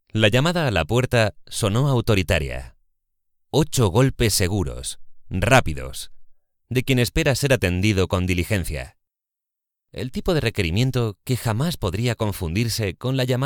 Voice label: spanish others